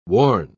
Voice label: "warn", pronounce